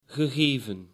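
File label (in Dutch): "gegeven", Dutch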